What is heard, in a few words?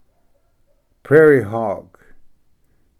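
"prairie hawk", English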